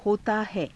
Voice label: hotaa hai, Hindi; होताहै

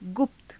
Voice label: "gupta", Hindi

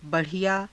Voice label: "ba rhiyaa", Hindi; [形]上等な; बड़िया

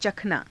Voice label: "cakhanaa", Hindi

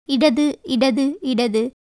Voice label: Tamil

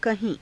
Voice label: "kahii~", Hindi

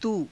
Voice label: "tuu", hindi

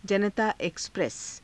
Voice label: "janataa eksapresa", Hindi

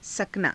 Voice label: "sakanaa", hindi

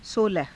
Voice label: "solaha", hindi

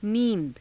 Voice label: "nii~da", Hindi

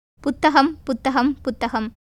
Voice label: Tamil

